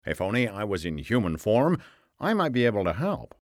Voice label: animation game character

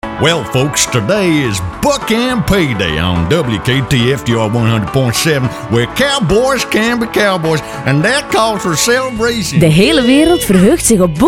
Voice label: USA commercial, voiceover; Book And Pay

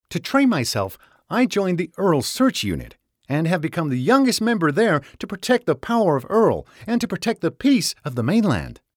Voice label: animation game character, voiceover

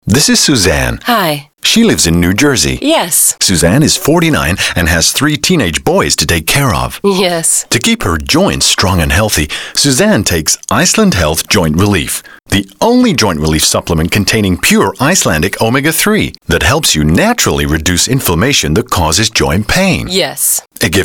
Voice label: US commercial; Iceland Health

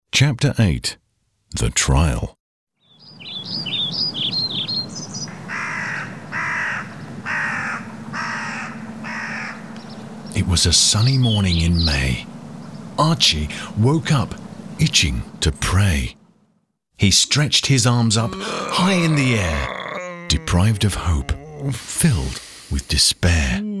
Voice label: childrens audiobook; Antletics

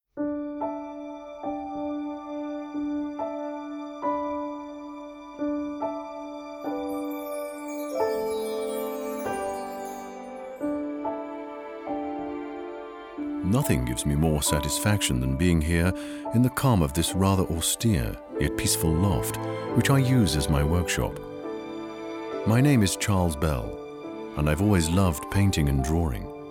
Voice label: posh accent, voiceover; Waterloo Beer

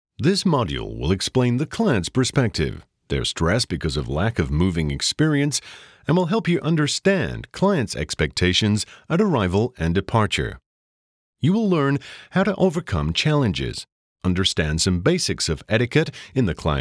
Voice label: E-learning US accent

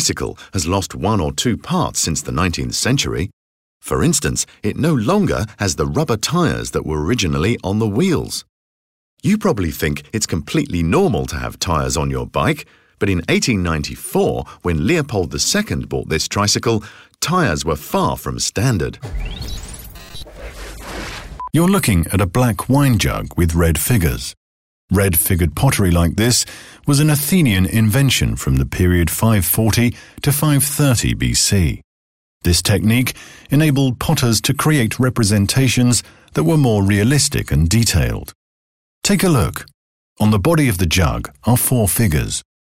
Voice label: VO Audio guide

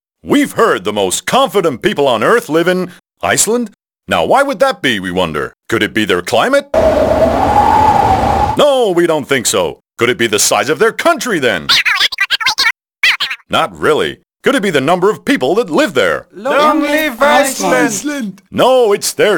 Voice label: USA commercial, voiceover; Iceland Health

